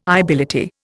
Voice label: "ibility", Indian